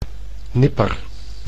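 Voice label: "nipper", Dutch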